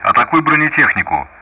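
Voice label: Speech Russian; DCS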